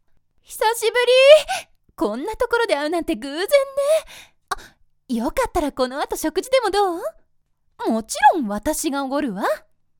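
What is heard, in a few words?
cheerful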